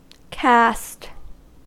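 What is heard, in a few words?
"caste", US English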